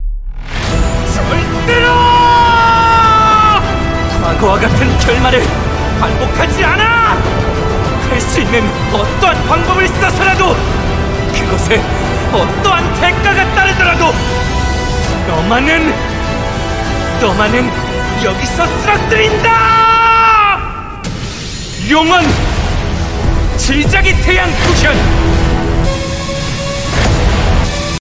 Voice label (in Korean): 캐릭터 힘있는 정의로운 20대